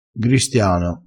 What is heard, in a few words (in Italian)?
"gristiano", crespinese, vocabolario dialettale